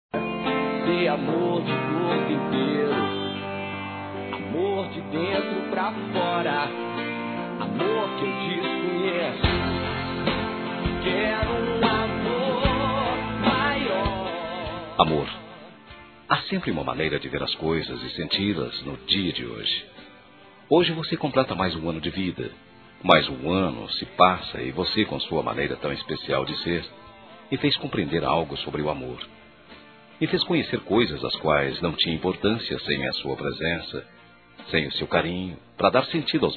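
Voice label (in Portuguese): Aniversário Namorada Masculino; Aniversários; ANIVERSARIO romantico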